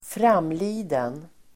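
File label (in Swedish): "framliden", Swedish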